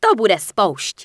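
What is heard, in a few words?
Archer Yes Attack, Czech; wowpreklad; czwow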